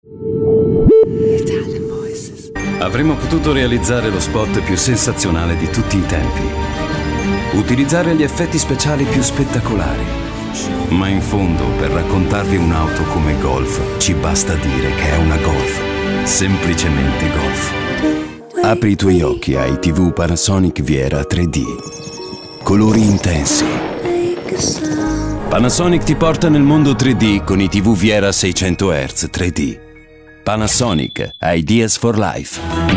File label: ITALIAN VOICES Authoritative Intense